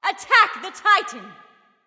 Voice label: vox attack; smite; aphrodite

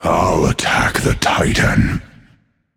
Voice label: vox self attack; smite; hades

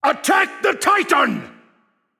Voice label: vox attack m; smite; set

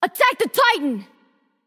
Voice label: vox attack; smite; skadi

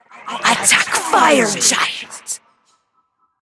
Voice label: vox attack; smite; izanami

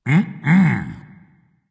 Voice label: disagree dragon; fafnir

